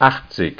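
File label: "achtzig" German; ZAEHLEN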